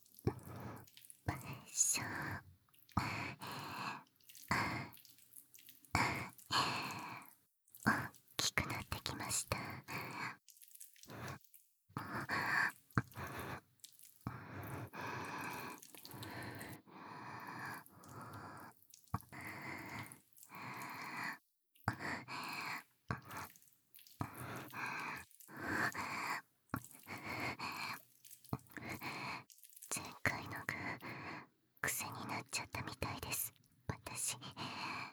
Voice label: 寝ている間の不健全 バイノーラル 効果音あり, ASMR

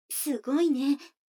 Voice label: 女の子 台詞, ASMR

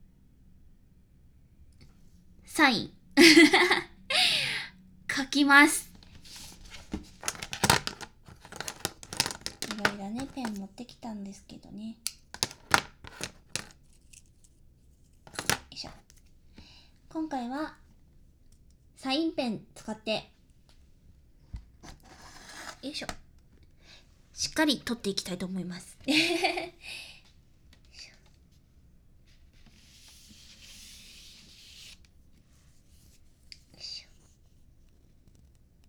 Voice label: ASMR バイノーラル

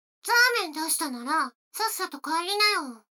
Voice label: 女の子台詞

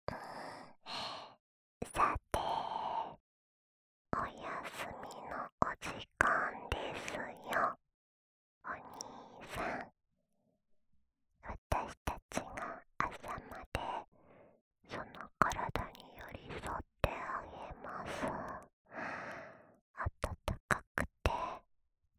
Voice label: 安眠用添い寝ボイス, ASMR

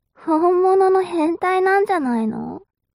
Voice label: mob 女子 台詞

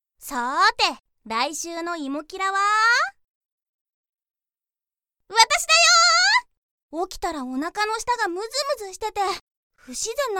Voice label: 次回予告（BGMなし）; ドージンボイスワーク

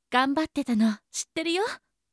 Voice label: 応援ボイス

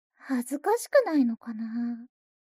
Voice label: mob 女子 台詞

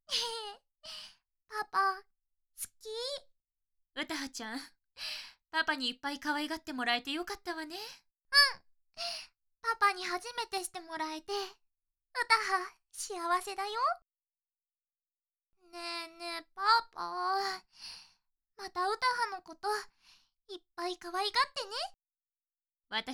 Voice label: ピロートーク; 家族でイチャイチャ; 効果音なし

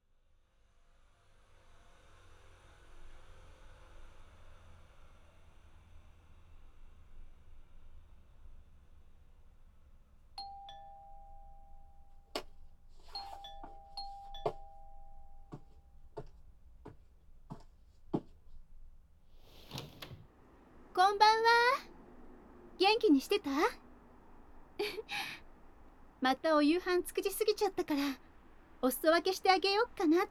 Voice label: 隣人, ASMR

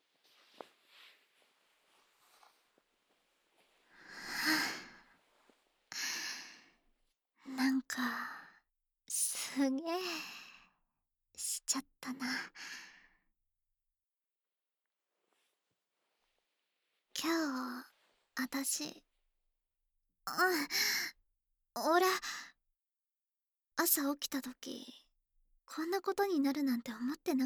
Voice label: バイノーラル; ぼんやりとした温かい満足感と共に

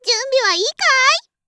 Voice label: 準備はいいかーい?, 掛け声

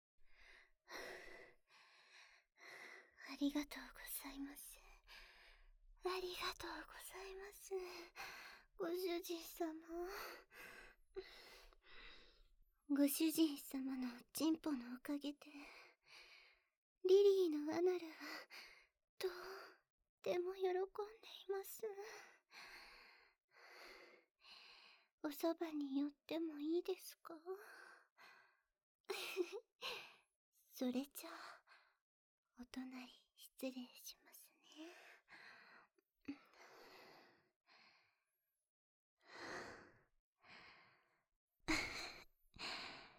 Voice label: ピロートーク; サキュバス堕とし【調教アナル奴隷／立体音響】

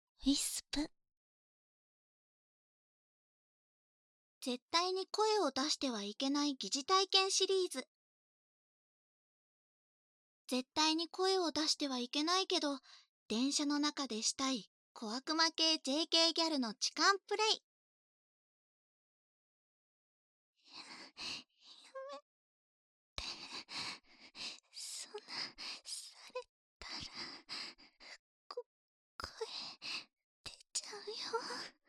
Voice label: タイトルコール

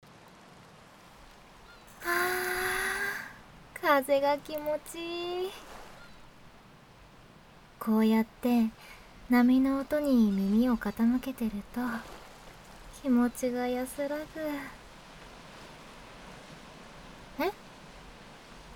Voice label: ゆったり添い寝

